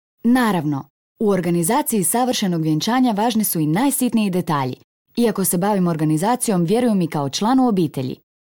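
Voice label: croatian female narration, voice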